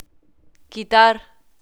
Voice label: "quitar", Spanish